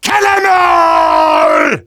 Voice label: Demoman battle cry

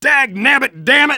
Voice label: Engineer negative vocalization

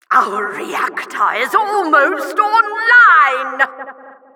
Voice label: announcer team close to winning

